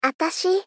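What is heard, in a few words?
Gentle, "Atashi", Japanese female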